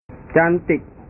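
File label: "cantik", Indonesian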